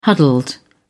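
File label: "huddled", English, uk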